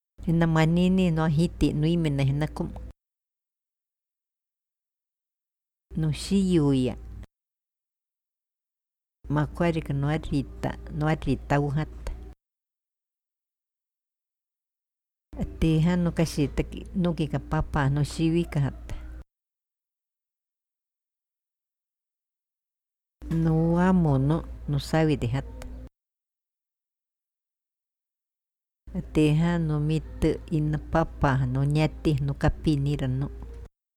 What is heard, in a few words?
iquito verbos